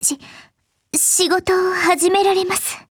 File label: hello, jp; RibeyrollesMod